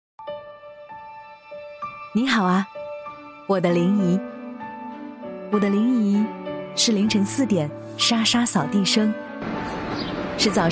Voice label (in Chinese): 女 疫情; 临沂; 欢迎回来